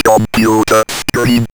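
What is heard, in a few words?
ROBVOX, robot voice; E-Lab; X Static Goldmine 1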